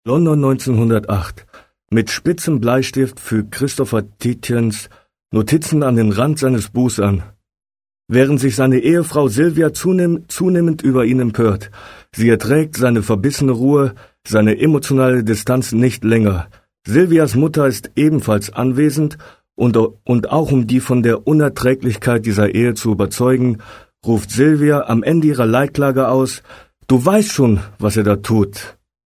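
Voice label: German, male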